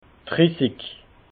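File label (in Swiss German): "drissig", Alsatian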